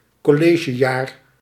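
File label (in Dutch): "collegejaar", Dutch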